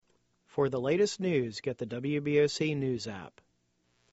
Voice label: news sponsor; alexa